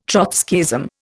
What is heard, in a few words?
"Trotskyism", Indian